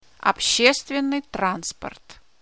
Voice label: "Public Transport" In Russian

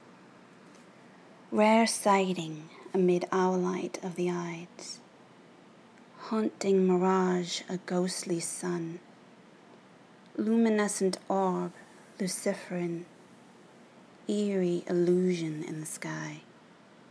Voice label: spokenword

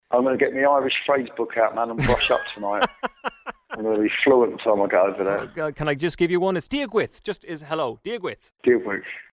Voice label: speaks irish; paul weller

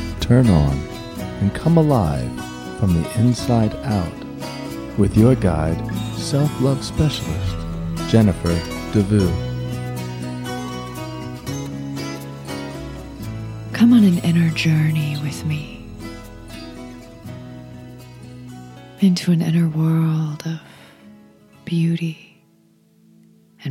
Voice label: rainforest meditation

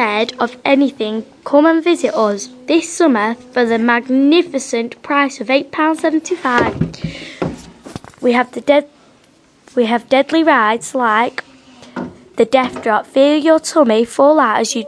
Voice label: theme park radio advert

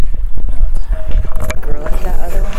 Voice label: EVP

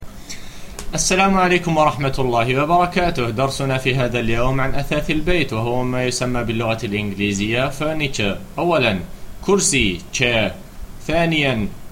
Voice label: arabic lesson furniture

